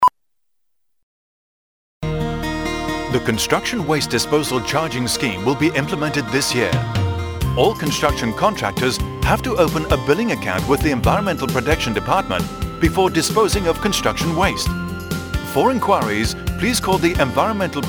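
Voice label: radio English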